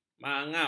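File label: "mangap", Malay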